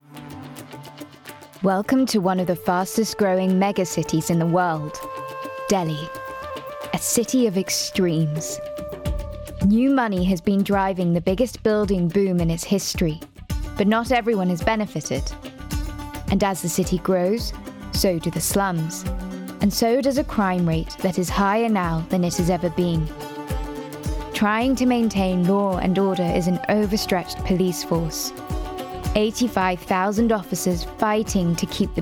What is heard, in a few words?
Documentary; 20s-30s; Female; RP